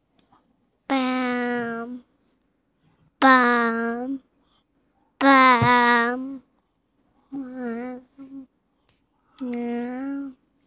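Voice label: "mao1", Mandarin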